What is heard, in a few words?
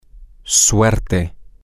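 Spanish, male; lucky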